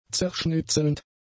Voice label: "zerschnitzelnd", German; adjectives